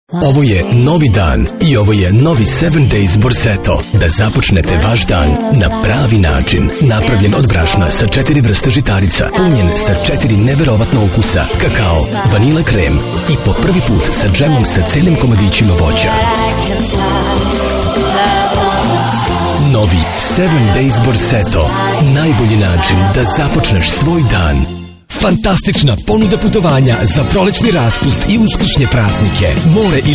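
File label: serbian male commercial voice; voice demos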